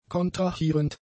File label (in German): "kontrahierend", German; adjectives